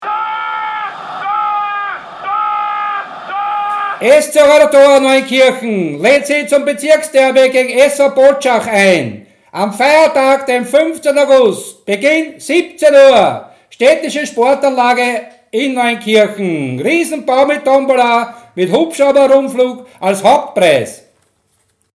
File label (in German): Durchsage